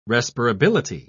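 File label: "respirability", British English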